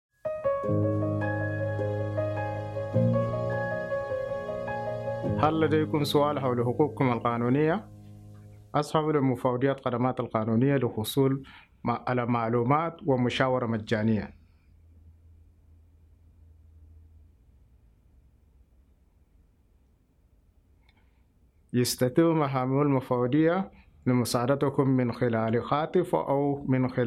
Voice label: Sudanese Arabic